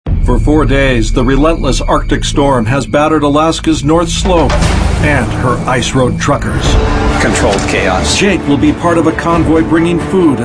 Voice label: male narration